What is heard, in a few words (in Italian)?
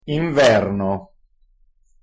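"inverno", Italian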